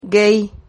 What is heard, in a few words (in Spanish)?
"guei", Silabas